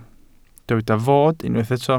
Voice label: "fod", Welsh